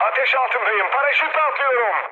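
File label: Turkish on fire, mood low, speech; War Thunder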